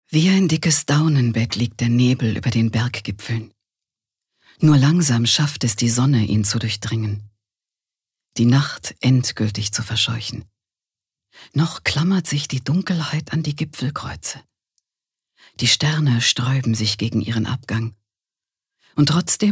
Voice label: Schauspiel Variabel

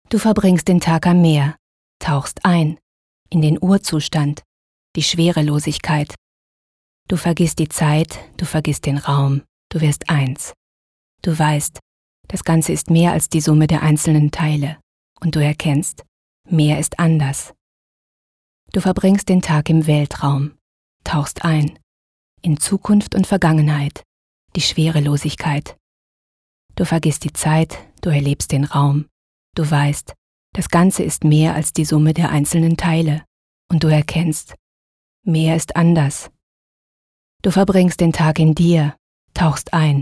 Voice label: Schauspiel Neutral

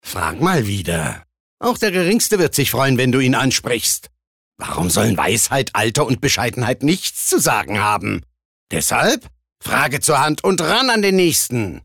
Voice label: Werbung Energisch

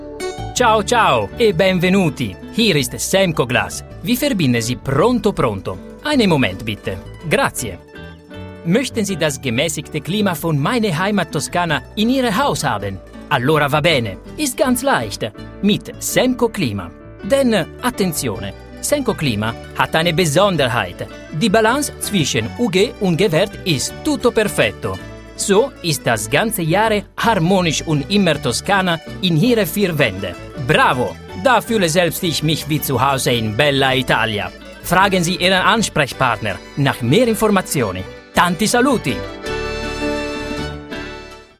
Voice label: Akzent Italienisch

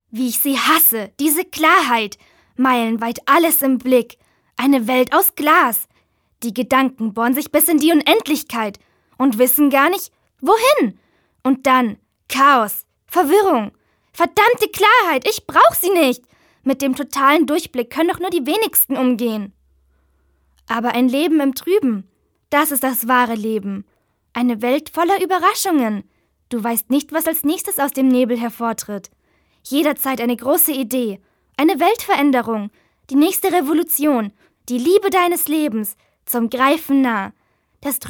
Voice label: Schauspiel Energisch

